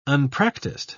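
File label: "unpracticed", British English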